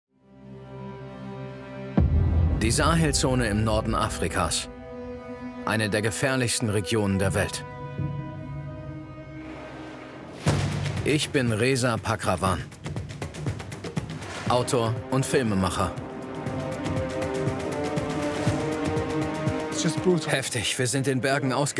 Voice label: Documentary Narrator VO; Eine wilde Reise; Worlds most dangerous borders